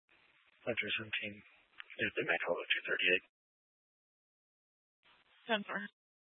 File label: Mesa PD Dispatch